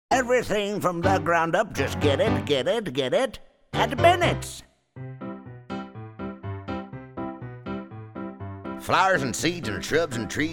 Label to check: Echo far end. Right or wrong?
Wrong